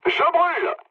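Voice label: French on fire mood low; War Thunder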